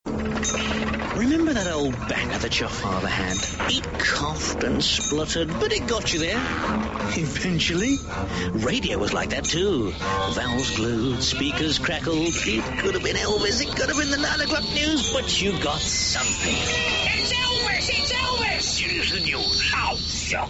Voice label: fm trailer